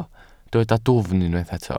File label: "dwfn", Welsh